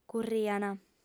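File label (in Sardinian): "curriant", female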